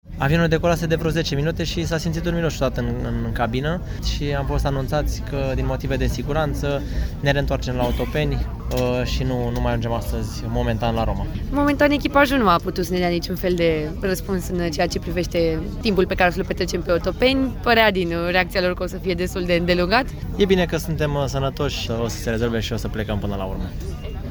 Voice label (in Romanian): voxuri avion; aterizare urgenta otopeni avion bucuresti roma miros ars